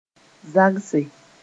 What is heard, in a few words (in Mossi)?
"zagse", moore